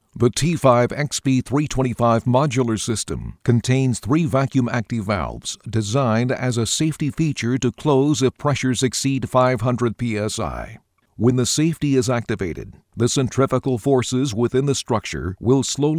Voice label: corporate